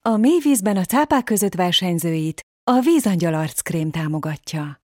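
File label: Hungarian, dynamic; GoLocalise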